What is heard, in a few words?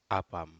Aneityum come